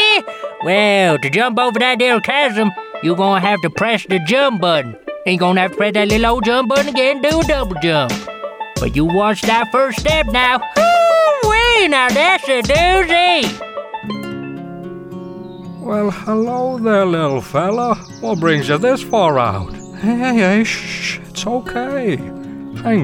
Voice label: Southern US